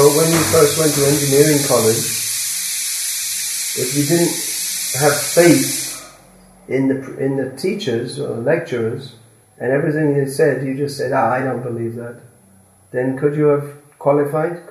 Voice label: Conversation with Two Engineers Vellore Tamilnadu INDIA